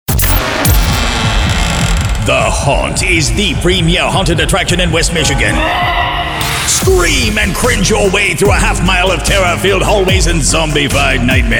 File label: Character Voices